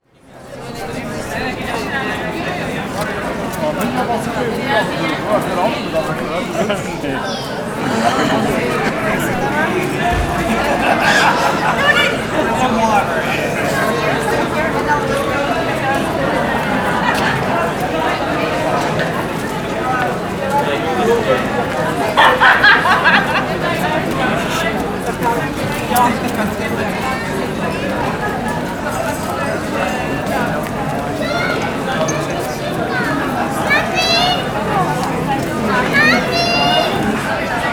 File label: People Talking